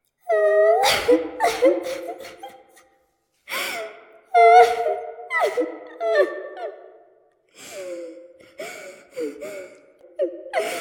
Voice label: Female Ghost Hunting; Phasmophobia